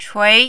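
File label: "chui", Mandarin, tone 2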